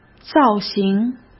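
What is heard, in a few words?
"造型", Mandarin Chinese; hsk; chinese flashcards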